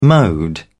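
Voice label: "mode", British English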